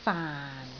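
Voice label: "faan4", Cantonese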